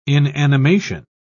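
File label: "inanimation", British English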